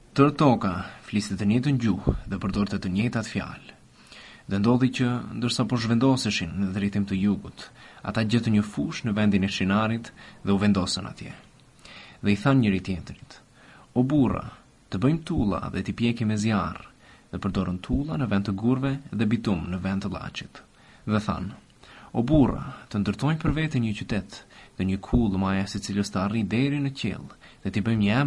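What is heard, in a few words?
Albanian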